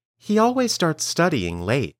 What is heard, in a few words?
Dictation